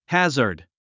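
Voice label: "hazard", English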